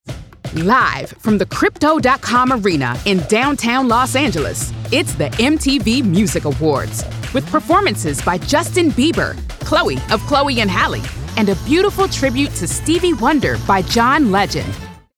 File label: Modern Millennial Upbeat Youthful Announcer Awards; MTV Awards